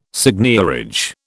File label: "seigniorage", British